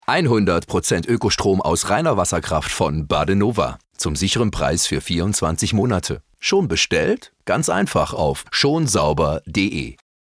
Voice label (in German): Spot; Badenova